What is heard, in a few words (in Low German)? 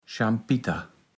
"Schampitter", Platt